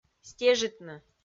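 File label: "стежитны", Komi, female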